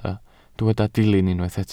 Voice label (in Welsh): "dilyn" Welsh